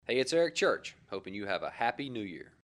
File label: liner happy new year